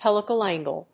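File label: "helical angle", English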